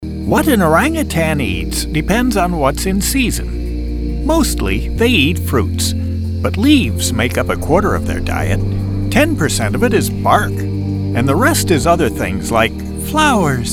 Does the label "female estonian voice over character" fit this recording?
No